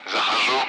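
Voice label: Speech Russian; Allied Flight; DCS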